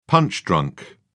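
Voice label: "punch-drunk", British English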